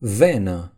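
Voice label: "vein", Romanian; gcompris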